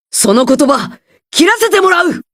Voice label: cut words; dr2; hajime